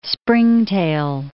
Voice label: "springtail", English